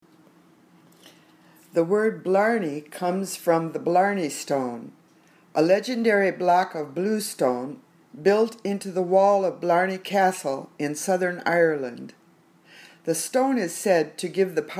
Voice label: Reading Drill